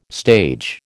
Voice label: "stage", American

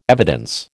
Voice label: "evidence", American

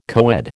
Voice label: "coed", American

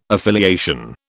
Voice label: "affiliation", British

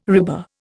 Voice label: "RIBA", Indian

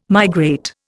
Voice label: "migrate", Indian

